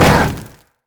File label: bear stance roar; LoL; udyr